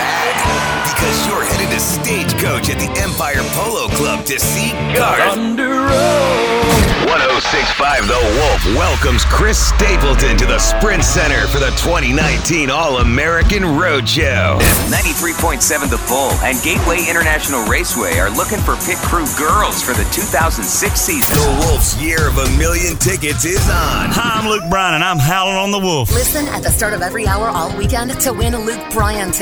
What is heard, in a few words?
radio men country, radio imaging